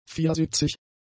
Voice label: "viersitzig", German; adjectives

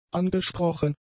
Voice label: "angesprochen", German; adjectives

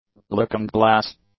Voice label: "looking glass", English; nouns

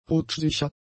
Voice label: "rutschsicher", German; adjectives

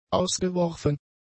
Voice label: "ausgeworfen", German; adjectives

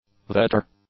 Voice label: "vetter", English

